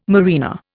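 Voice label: "marina", Indian English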